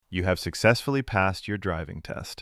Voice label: Male You Have Passed